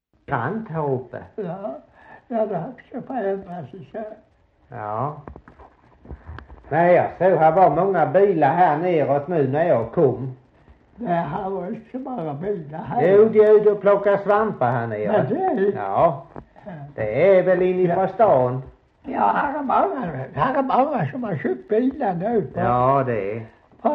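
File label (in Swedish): Slättåkra Halland, dialekter; Folkminnen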